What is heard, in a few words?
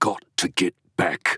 Announcer Rambling